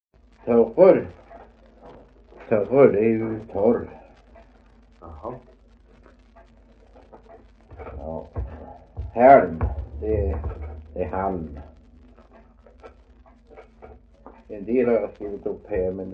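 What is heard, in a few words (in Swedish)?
Veddige Halland, dialekter; Folkminnen; Svenska sagor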